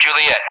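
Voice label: "Juliett", English; Alphabet; DCS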